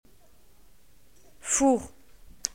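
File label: "oven", French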